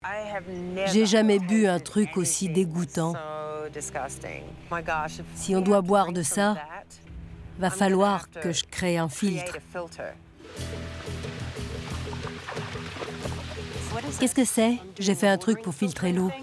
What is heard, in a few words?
Caveman, voice over